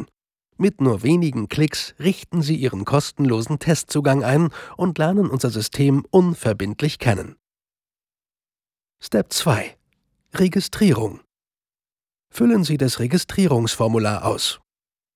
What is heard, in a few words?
esales e-learning, offstimme